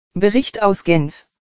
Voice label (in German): Leichte Sprache